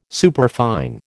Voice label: "superfine", American

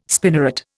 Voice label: "spinneret", Indian

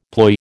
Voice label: "ploy", American

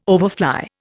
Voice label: "overfly", Indian

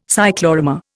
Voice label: "cyclorama", Indian

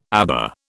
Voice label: "aba", British

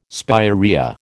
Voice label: "spirea", American

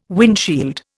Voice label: "windshield", Indian

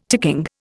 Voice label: "ticking", Indian

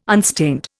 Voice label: "unstained", Indian

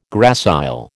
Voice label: "gracile", American

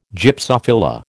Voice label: "Gypsophila", American

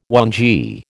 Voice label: "whangee", American

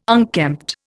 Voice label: "unkempt", Indian

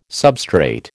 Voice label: "substrate", American

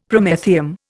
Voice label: "Promethium", Indian; Dictionary

